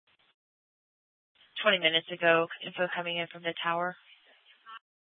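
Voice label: police department dispatch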